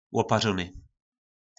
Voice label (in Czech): "Łopařóny", nářečí Po našimu; Opařený